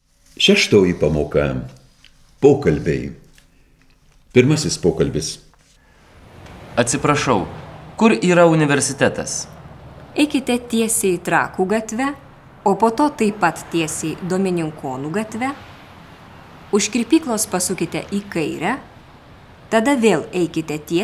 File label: Dialog